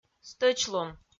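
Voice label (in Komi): "стӧчлун", Komi, female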